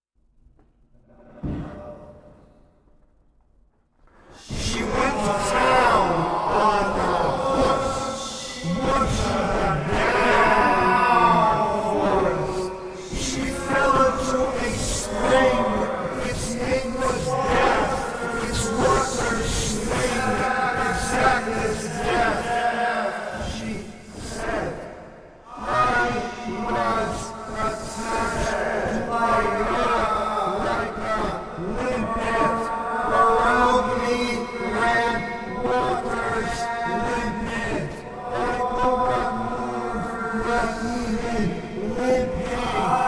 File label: Ballad distorta; Poet’s familiar voice hyper-espression. Eugene Ostashevsky, 2007.